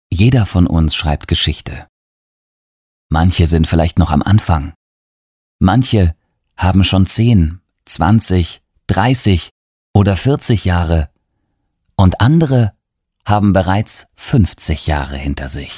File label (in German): Trailer trocken, stimme